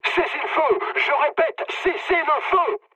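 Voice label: player friendly fire, mood low, French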